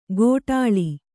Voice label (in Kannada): "ಘೋಟಾಳಿ", kannada